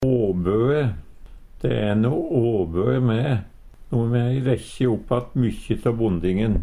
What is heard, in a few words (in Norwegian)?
"åbøe", Numedalsmål; De e no åbøe me, no må e rekkje opp att mykje tå bondingen.; umogeleg